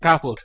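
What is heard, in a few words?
"kh2put", Proto-Indo-European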